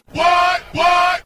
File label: "what what", voice sample; ooh ahh